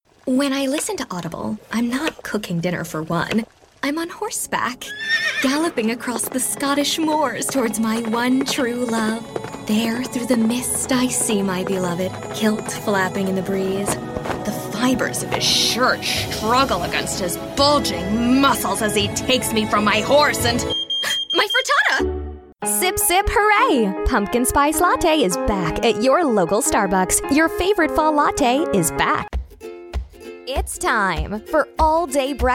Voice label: Commercial Voice Over